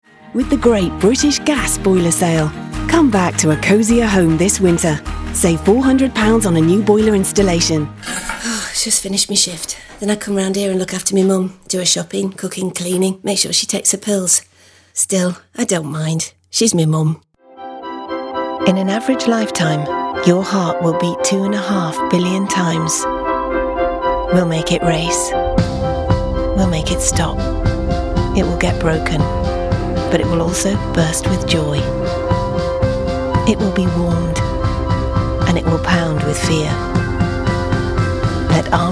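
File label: Showreel Commercial Character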